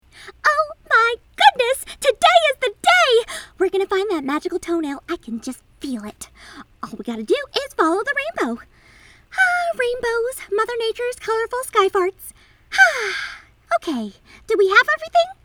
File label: Kid Teen; Pixie Styx; Quest For The Magical Toenail